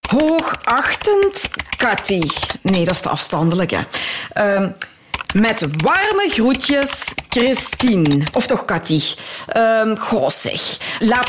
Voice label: Radiocommercial acting VO dialect Limburgish informative; Happiness